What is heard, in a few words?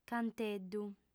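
"canteddu", female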